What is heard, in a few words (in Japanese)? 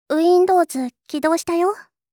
Windows起動したよ, システムボイス